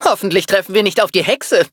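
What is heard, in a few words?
loading, German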